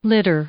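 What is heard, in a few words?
"litter", English, female; noun; i-ɪ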